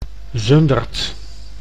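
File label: "Zundert", Dutch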